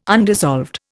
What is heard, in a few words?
"undissolved", Indian